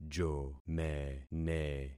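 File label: "jomene", concatenated syllables; Affixing experiment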